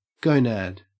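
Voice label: "gonad", Australian English; pronunciations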